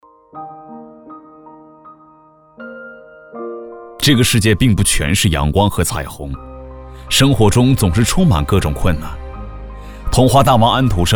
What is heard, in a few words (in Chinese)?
男 微电影 口述类励志